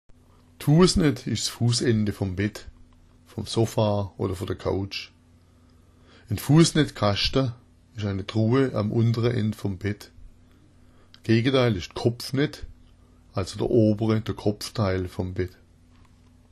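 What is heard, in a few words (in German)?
"fuasnet", schwäbisch; Fuaßnet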